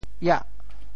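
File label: "iah8", Teochew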